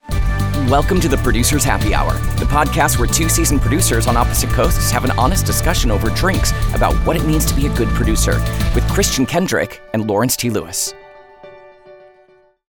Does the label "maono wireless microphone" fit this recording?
No